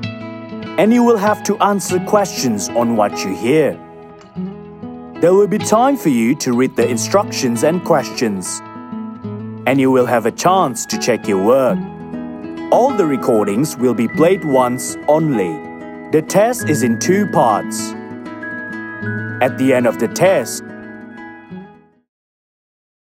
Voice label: ENGLISH FORMAL, voiceover